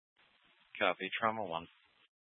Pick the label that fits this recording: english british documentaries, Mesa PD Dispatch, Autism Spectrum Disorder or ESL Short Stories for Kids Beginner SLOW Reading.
Mesa PD Dispatch